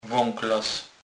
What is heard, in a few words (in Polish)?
"waklas", Kurpie